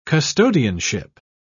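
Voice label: "custodianship", British English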